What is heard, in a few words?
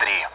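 Speech Sound Russian Common AWACS Digits; DCS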